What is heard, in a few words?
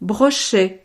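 "brochet", French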